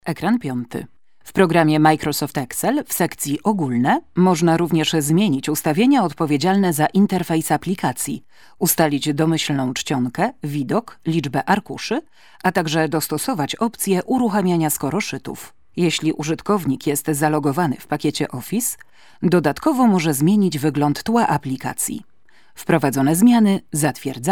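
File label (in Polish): E-LEARNING, female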